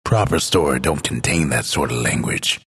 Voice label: announcer text cursing; dlc bastion